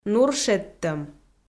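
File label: "нур шедтӧм", Komi-Zyrian, female; audio dict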